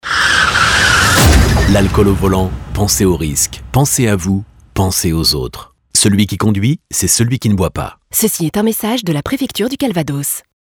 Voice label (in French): radio spot